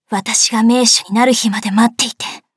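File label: start, Japanese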